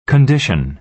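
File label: "condition", American English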